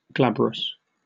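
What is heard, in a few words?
"glabrous", British English; pronunciations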